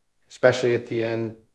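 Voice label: Voice Acting, Spanish, male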